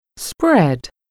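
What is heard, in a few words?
"spread", English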